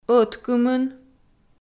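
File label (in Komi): "ӧткымын", Komi, female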